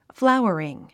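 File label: "flowering", English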